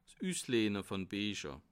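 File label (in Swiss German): "usleihje", Bas Rhin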